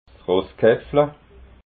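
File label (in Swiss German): "rosskapfla", Alsatian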